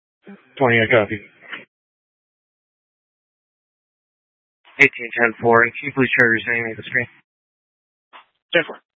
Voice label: Scottsdale Police, Simulcast